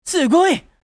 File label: Amazing anime boy character